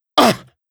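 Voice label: Rap Do It Adlib; Hip-Hop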